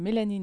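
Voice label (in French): "mélanine", French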